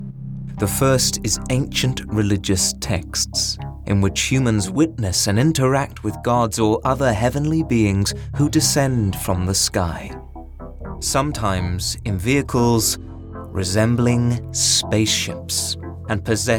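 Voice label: alien narration, British English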